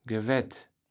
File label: "gewätt", Kaevels Platt